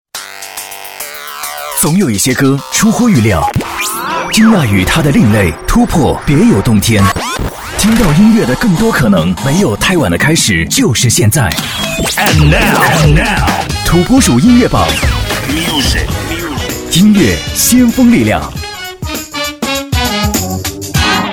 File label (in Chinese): 音乐榜版头; 土拨鼠音乐榜版头